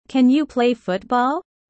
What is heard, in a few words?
low; studying abroad